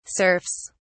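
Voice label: "serfs", British English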